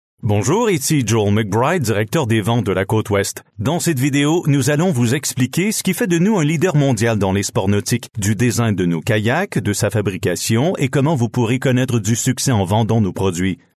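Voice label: Canadian French, male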